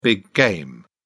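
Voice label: "big game", English, British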